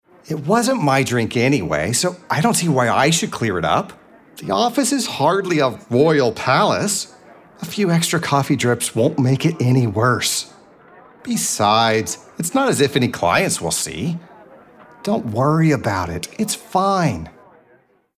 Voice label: voiceover elearning sarcastic